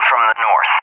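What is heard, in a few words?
"from the N", English, USA; DCS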